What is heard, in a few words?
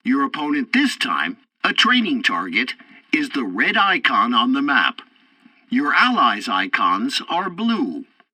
tutorial tank arcade map explanation, english; War Thunder